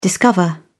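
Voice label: "discover", English, British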